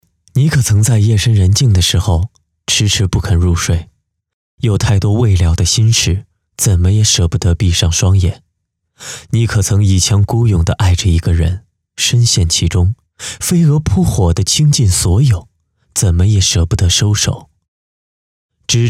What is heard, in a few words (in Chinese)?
情感电台